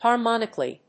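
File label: "harmonically", English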